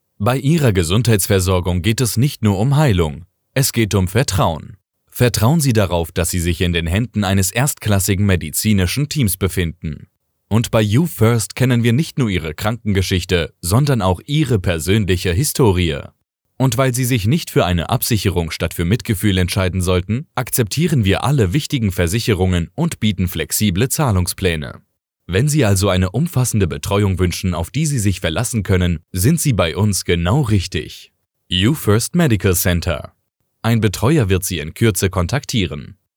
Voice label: Sincere